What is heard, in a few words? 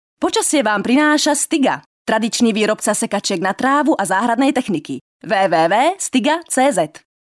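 Female Slovak commercial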